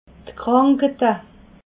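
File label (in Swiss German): "krankheite", Alsatian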